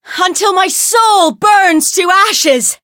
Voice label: Voice variable chant